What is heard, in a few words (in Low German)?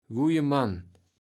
"guje Mann", kaevels platt